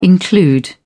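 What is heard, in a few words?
"include", British English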